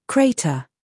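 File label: "scandal", American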